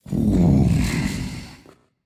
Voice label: thinking; smite; kukulkan